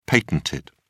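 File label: "patented", British English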